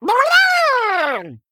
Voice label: Male Murloc Play

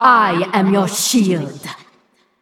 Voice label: Female Valkyre Attack

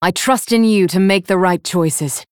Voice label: Female Night Elf General

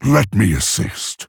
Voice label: Male Orc; Thrall; Jaina; Mission4ThrallHelp

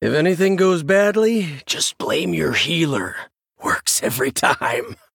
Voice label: Male Human Bark; Leeroy Jenkins

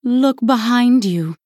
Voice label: Female Human Attack

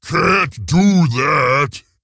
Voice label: Ogre Emote Error Generic

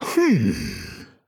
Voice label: Male Dwarf Thinking

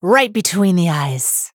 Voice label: Female High Elf

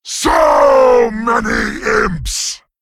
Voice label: Male Satyr Play

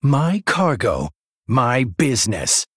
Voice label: Male Blood Elf Play

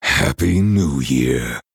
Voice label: Male Night Elf happy new year lunar

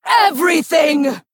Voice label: Female High Elf Attack

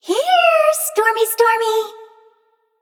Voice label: Female Water Spirit

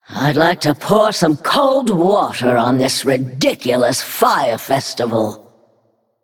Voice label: Female Naga FIRE FESTIVAL

